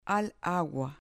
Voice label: "agua" Spanish